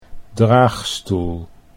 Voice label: "draagstoel", Dutch